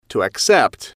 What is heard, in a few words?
"to accept", English